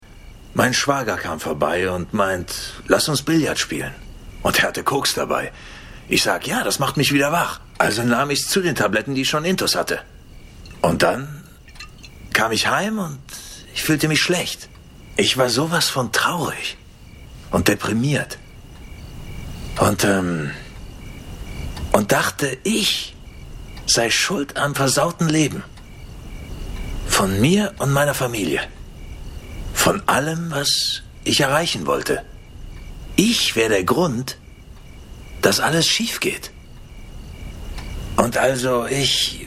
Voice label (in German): voices doublage; frank und cindy; de